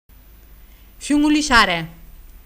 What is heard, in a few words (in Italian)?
fiondare, ruffano